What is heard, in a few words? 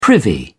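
"privy", British English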